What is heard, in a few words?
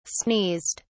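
"sneezed", British English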